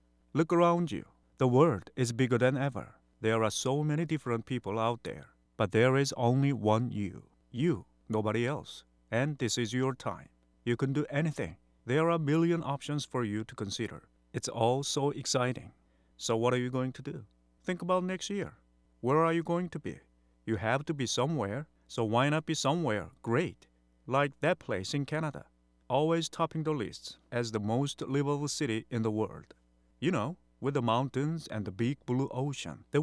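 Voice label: Korean English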